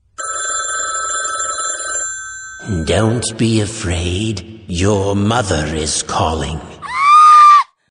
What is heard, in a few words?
Scary Mother Call